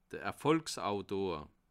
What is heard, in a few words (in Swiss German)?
"erfolgsautor", alsace